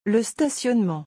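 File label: "le stationnement", French; vocabulaire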